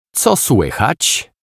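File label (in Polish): "Co słychać", Polish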